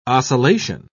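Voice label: "ocellation", British English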